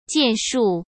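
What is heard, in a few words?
"建树", Mandarin Chinese; hsk; chinese-flashcards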